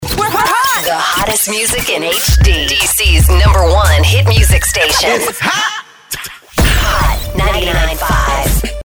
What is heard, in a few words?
Imaging CHR Dance Hip Hop